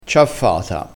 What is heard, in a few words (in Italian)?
"ciaffata", crespinese